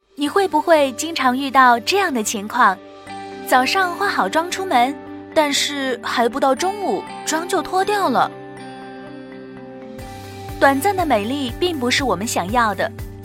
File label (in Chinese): 女; 妍诗美清透无痕蜜粉